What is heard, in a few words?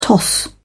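"toss-up", English, British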